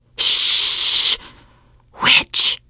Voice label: heard witch, teengirl; survivor voice